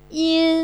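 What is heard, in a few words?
"yin", Chinese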